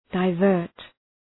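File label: "divert", English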